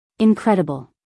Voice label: "incredible", us female; 1000 hours

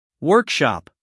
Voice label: "workshop", US English, male

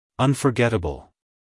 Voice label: "unforgettable" us male; everyone-can-use-english

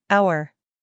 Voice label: "hour", us female

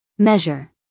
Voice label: "measure", US female; 1000 hours

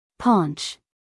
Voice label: "paunch", US female; everyone-can-use-english

